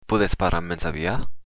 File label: slowly, occitan